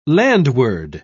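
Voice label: "landward", British English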